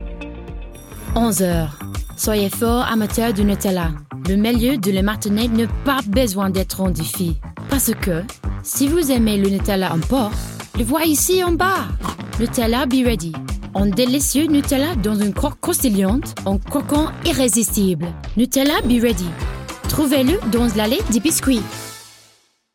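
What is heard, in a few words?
British English French Accent; voice demos; GoLocalise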